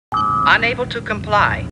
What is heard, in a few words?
Computer Voice; Star Trek